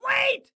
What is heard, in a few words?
Respond Wait, Excited Guy